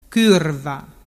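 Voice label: "curva", Vernazzese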